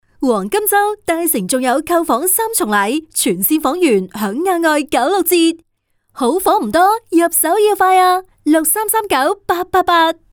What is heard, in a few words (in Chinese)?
女【地产】, 粤女; 碧桂园